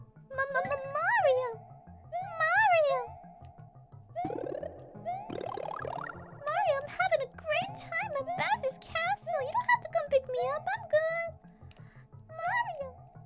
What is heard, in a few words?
peach impression; IRySoCute